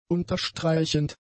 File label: "unterstreichend", German; adjectives